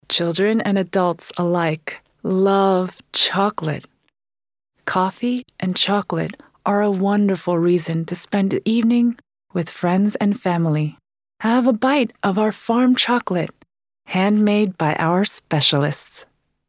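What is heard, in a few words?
English female Sweet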